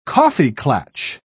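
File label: "kaffeeklatsch", British English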